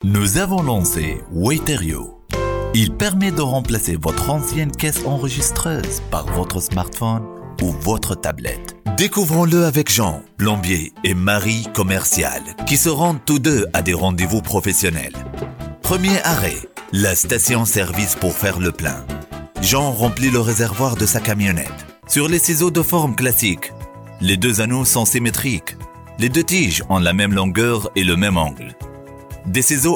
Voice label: French voiceover

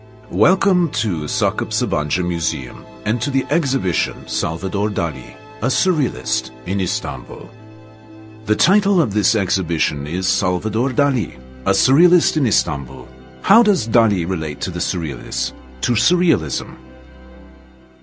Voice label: museum promotion

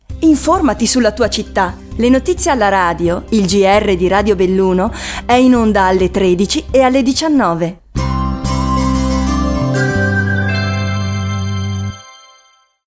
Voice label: italian voiceover radio presentation

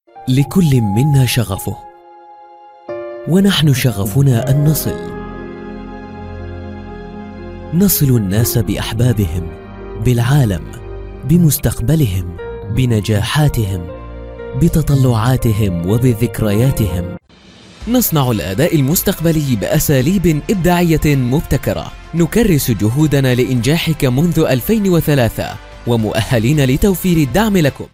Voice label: Arabic Voice Over

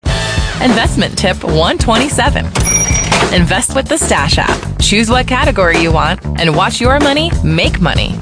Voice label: English Voice Over

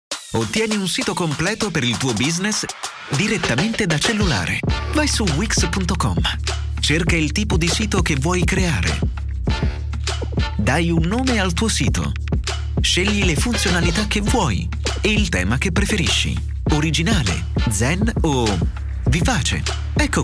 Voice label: fast paced energetic voiceover

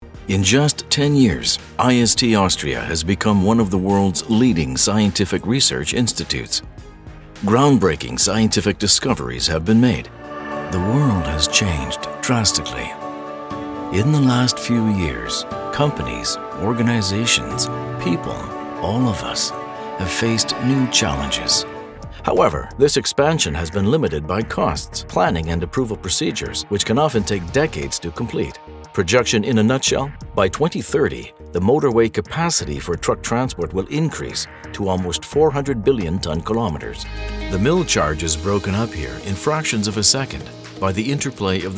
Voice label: Corporate industrial

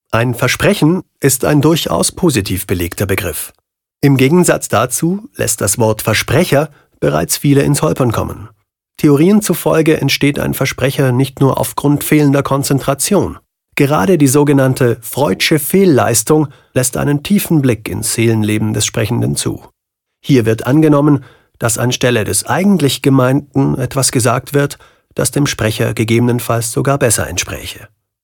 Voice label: German voiceovers Education

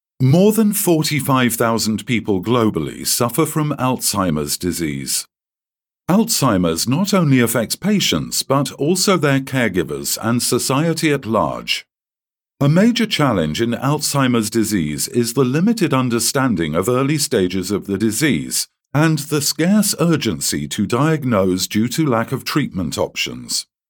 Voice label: English Voice Over Education

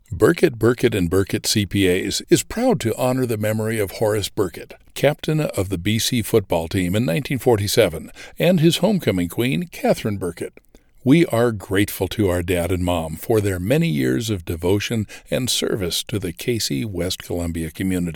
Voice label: English Voice Over

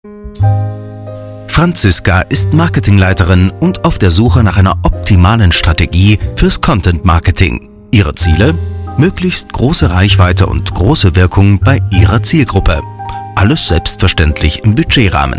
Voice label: brisk jolly

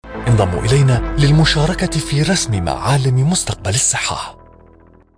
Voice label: Arabic Voiceover

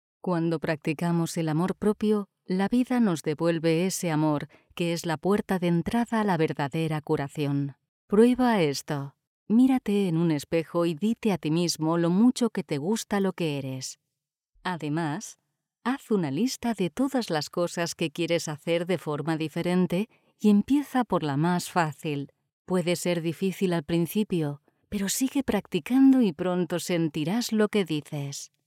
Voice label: Audio Guide

